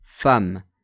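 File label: "femme", French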